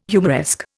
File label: "humoresque", Indian